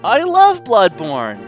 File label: happy; destroyer